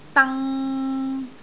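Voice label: "dang3", Cantonese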